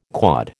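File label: "quod", American English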